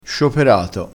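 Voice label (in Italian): "scioperato", dialettale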